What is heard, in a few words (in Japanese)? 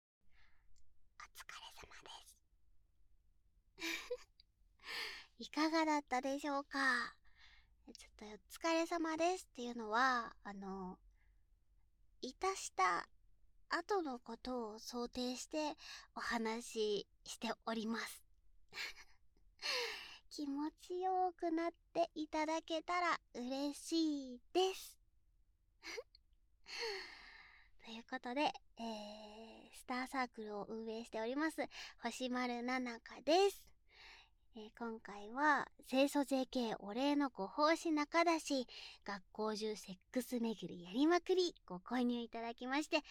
フリートーク; オホ声処女♪清楚JKお礼のご奉仕中出し～学校中SEX巡りヤリまくり☆～